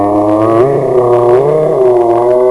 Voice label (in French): MORT ALIEN